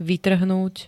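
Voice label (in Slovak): "vytrhnúť", Slovak; trhnutím uvoľniť, oddeliť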